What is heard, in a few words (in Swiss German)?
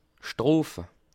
"strofe", alsace